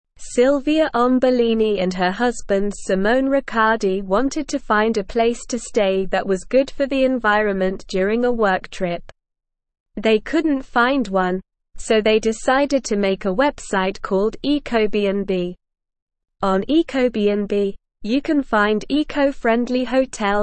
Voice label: English Newsroom Upper Intermediate SLOW Reading; The Rise of Sustainable Tourism A Green Revolution